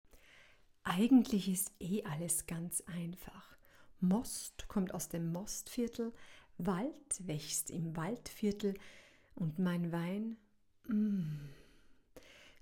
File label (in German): WERBUNG